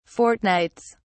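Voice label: "fortnights", English, British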